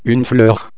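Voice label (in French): "fleur", French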